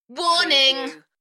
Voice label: UK female, Warning, Enthusiastic; Tech / Sci-fi; beanstalk audio

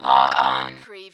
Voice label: Robot voice - log on; lynne publishing

